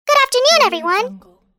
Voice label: cartoon girl good afternoon everyone

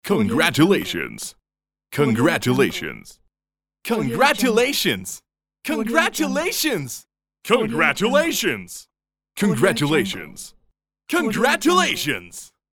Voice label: male saying congratulations

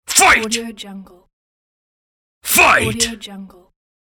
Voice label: announcer saying fight

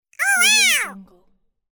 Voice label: cartoon minion discovering wow

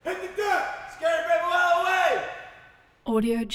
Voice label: sailor voice aircraft carrier

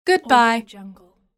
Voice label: female voice goodbye

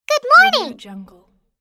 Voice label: cartoon girl "good morning"

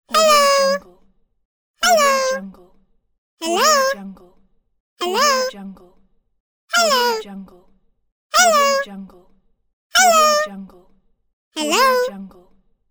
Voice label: cartoon girl merry hello

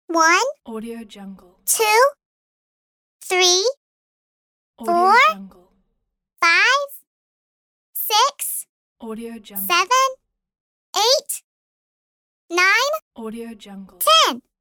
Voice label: cartoon girl counting one to ten

